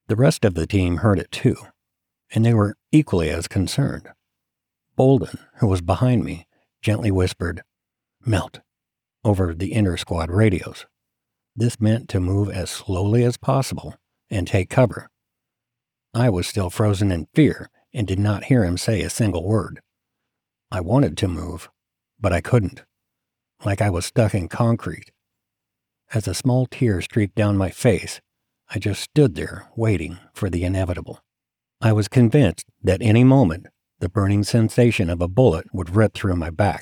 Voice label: Non Fiction Memoir Sample

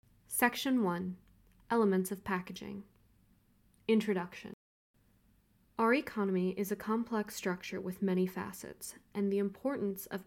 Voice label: Non Fiction textbook Narration

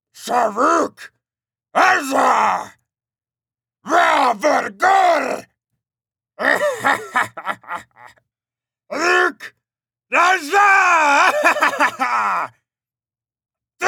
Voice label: Monster Sample

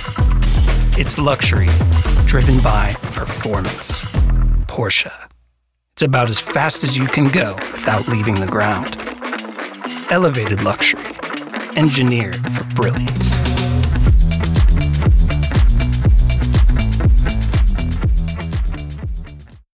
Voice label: VO Style Quiet Confidence; Houston, TX

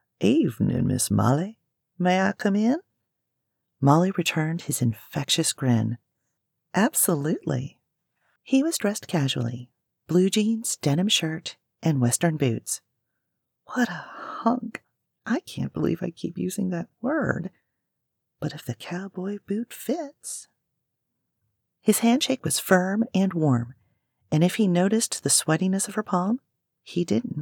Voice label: M F Romance Southern

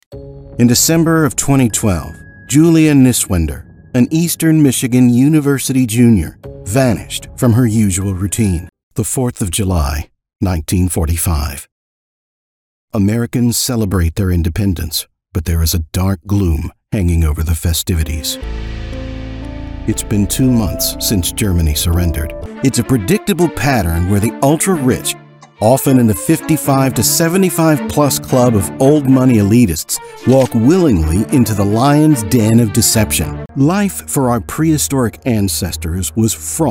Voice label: Video Narration; Audio Only

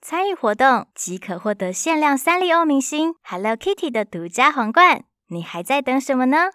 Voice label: cartoon, Female Mandarin & English; Mandarin Chinese

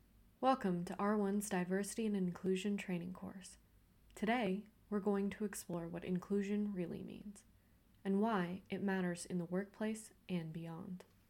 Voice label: e-learning Narration

